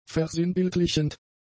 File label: "versinnbildlichend", German; adjectives